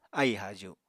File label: "aì-haaju", Apatani